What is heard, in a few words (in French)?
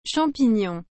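"champignon", French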